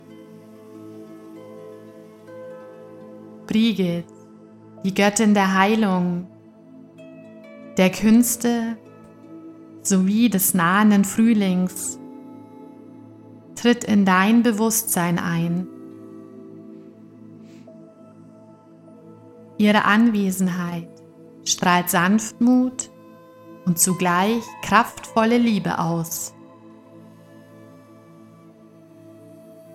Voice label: meditation; goettinen meditation brigid imbolc fruehling